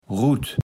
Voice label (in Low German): "Rutt", Platt